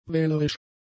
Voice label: "wählerisch", German; adjectives

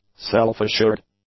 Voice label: "self-assured", English; adjectives

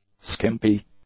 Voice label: "skimpy", English; adjectives

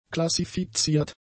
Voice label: "klassifiziert", German; adjectives

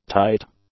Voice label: "tight", English; adjectives

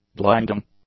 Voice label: "blinding", English; adjectives

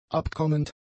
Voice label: "abkommend", German; adjectives

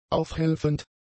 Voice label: "aufhelfend", German; adjectives

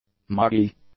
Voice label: "muggy", English; adjectives

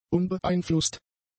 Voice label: "unbeeinflusst", German; adjectives

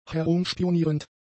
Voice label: "herumspionierend", German; adjectives

